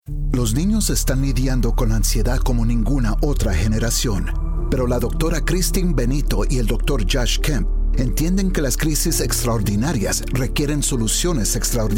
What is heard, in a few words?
Spanish Radio; Illumination Campaign